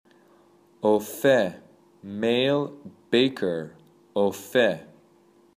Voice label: Male Baker